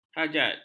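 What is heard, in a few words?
"hajat", Malay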